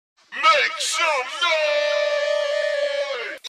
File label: make some noise dj sound effect